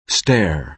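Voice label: "stair", American English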